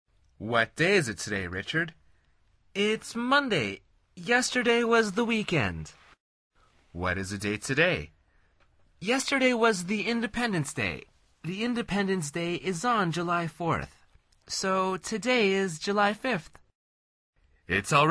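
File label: dialog, Conversación en Ingles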